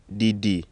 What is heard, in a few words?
ewe tone; ddiddi